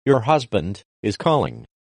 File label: your husband is calling alert english